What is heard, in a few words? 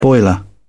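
"boiler", British English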